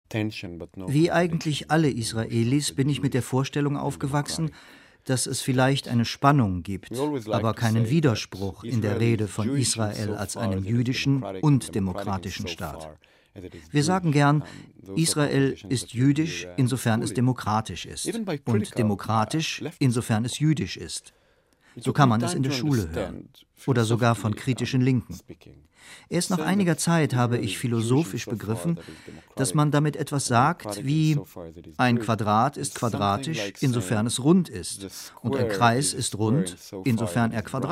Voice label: Voice Over

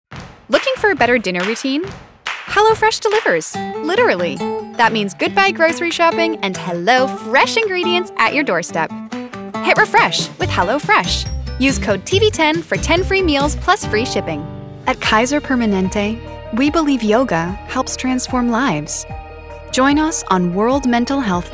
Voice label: Commercial

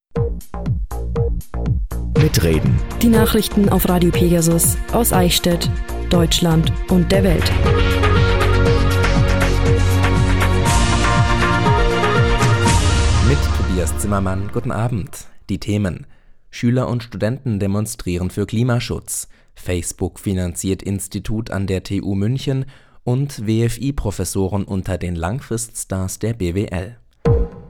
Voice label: News

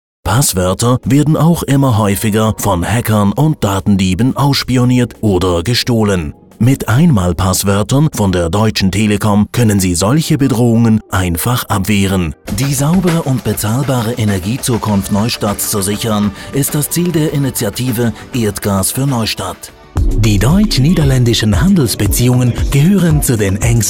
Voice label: Video German

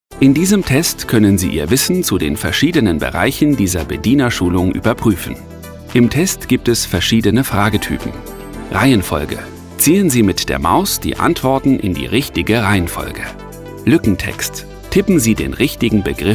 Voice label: e-learning mix

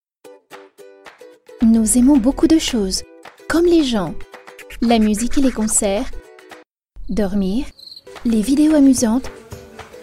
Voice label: explainer videos

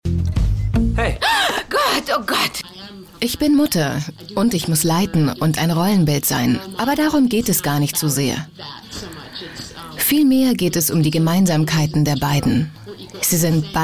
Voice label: voiceover; Gilmore Girls

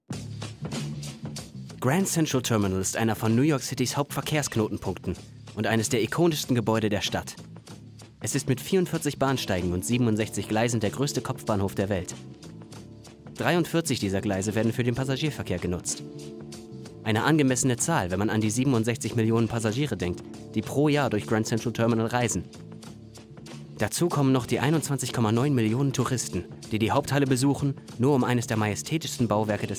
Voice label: Documentary DE

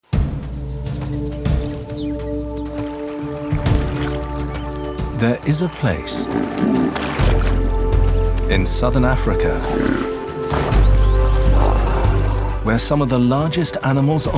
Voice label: Narration

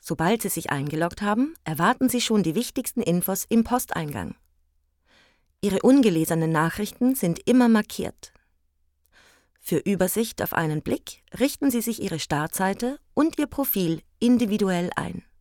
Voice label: E-learning Online Banking

